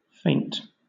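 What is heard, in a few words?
"feint", British English; pronunciations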